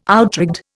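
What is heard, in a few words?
"outrigged", Indian English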